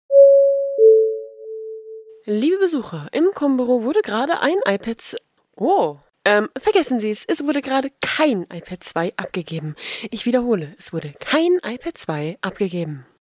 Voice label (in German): durchsage